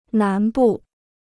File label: "南部", Chinese